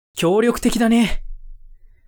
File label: man